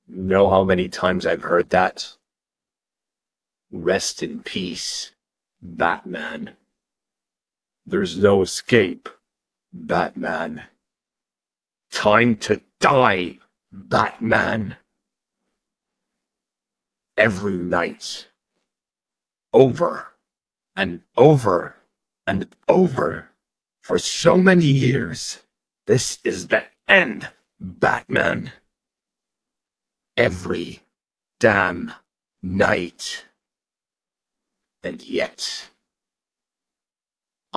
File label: US Accent Sample - Comic Re-enaction